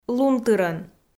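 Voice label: "лунтырӧн", Komi, female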